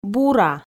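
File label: "бура", Komi, female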